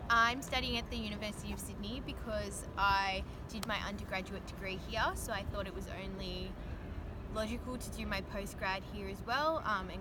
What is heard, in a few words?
Audio Interview